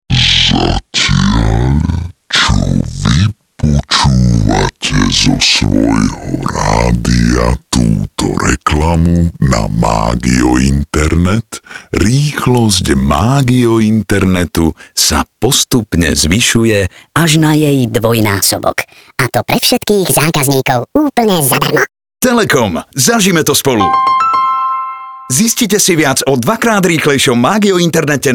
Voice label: radio; d1 2x rychlejsi magio internet